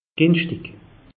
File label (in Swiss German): "ginschtig", alsace; meteo